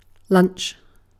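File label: "lunch", British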